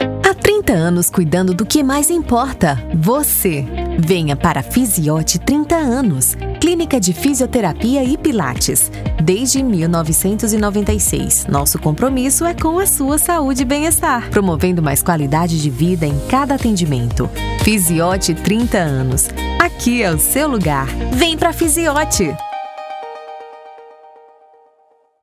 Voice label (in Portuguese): spot